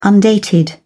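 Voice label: "undated", British English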